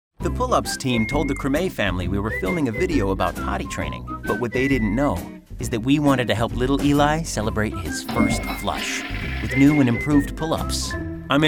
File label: commercial, men